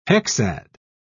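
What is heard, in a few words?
"hexad", English, British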